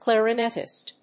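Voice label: "clarinetist", English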